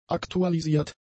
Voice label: "aktualisiert", german; adjectives

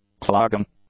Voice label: "clogging", English; adjectives

